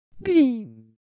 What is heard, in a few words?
Siwu Sound